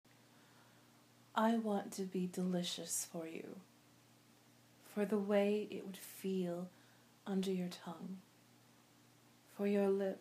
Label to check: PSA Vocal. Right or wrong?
Wrong